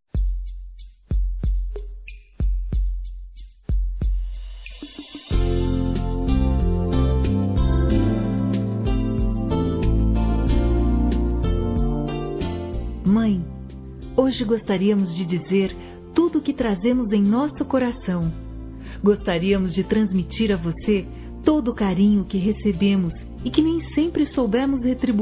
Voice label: FILHOS P MÃE F, Telemensagens Dia das Mães Fem; Telemensagens 2017

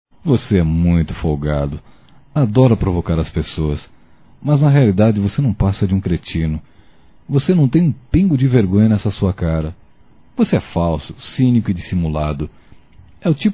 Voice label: Xingando geral homem para mulher Masculino; Telemensagens 2017

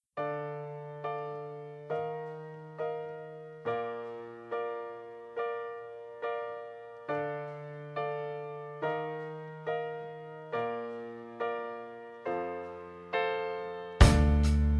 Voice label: Aniversário Cunhada Masculino

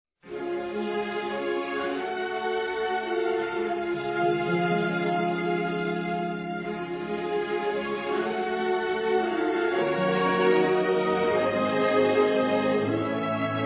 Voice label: Neutro, fem; Telemensagens 2017

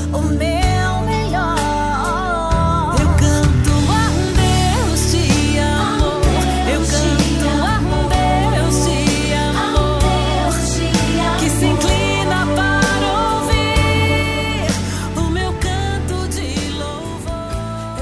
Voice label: PASTOR MASC voices; Telemensagens 2017; Aniversários; Aniversários diversos

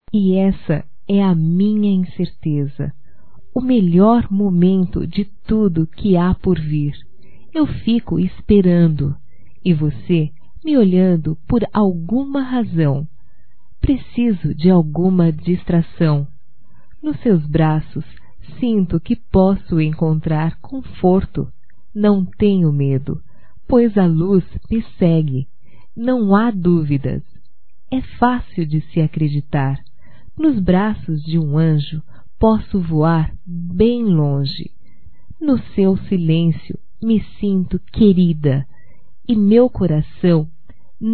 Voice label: ROMÂNTICA - F; Românticas

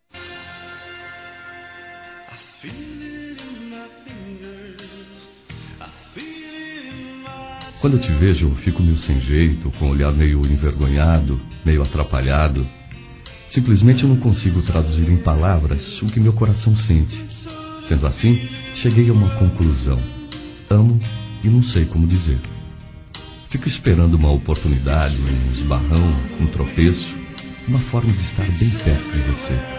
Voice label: Paquera Convite para Sair Masculino; Telemensagens; Conquistas Cantadas paqueras; Telemensagens 2017

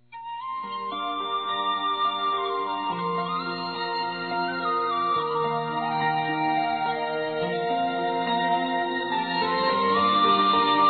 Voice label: Aniversário Neta Feminino

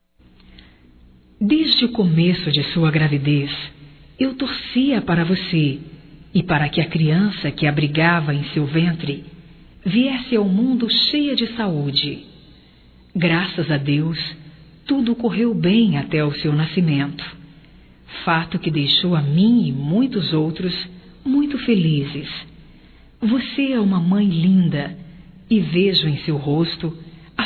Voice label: Parabéns Pelo Bebê Feminino, Voz; Telemensagens 2017

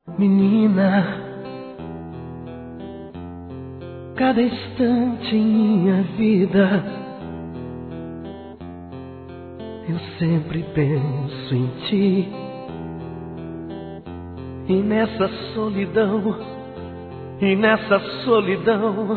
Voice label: Adorei te conhecer (M); Telemensagens 2017

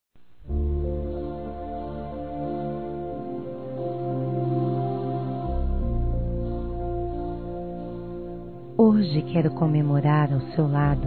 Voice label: Aniversário Casamento Feminino, Telemensagens; Aniversários; Nosso Aniversário Casamento Feminino

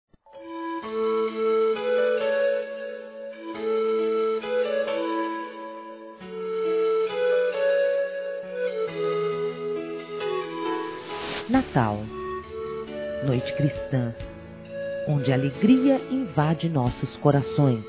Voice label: NATAL E ANO NOVO NEUTRO F; Telemensagens; fem; Misto

